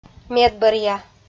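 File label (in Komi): "медбӧръя", Komi-Zyrian, female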